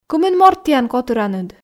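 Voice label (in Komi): кымын морт тіян котыраныд, Komi, female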